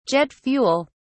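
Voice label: "jet fuel", British English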